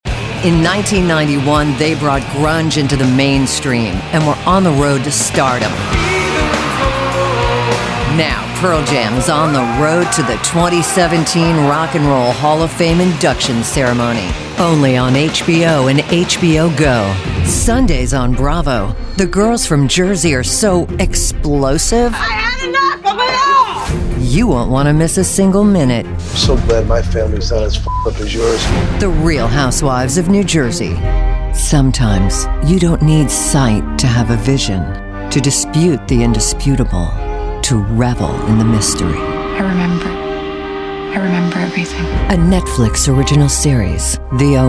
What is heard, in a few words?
Network Promo